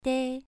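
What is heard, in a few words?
"dei" Mandarin, second tone, pronunciation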